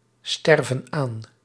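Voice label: "sterven aan", Dutch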